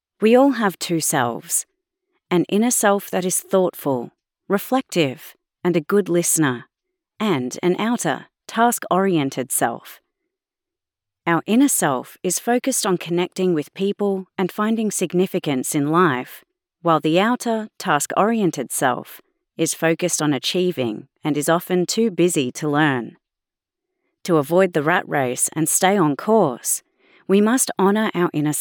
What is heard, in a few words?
Audiobook Non-Fiction AU; Leadership